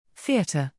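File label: "theatre", English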